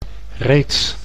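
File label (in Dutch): "reeds", Dutch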